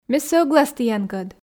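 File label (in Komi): ме сӧглас тіянкӧд, Komi, female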